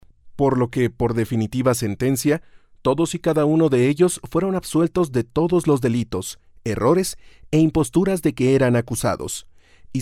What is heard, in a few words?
latin american spanish voiceover locutor latino; locutor de español neutro; locutor mexico, mexican voice over